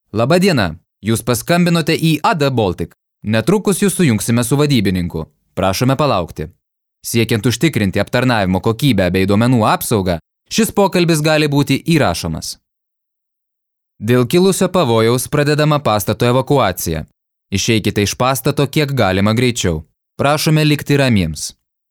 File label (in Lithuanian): pranesimai